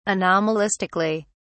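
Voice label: "anomalistically", British English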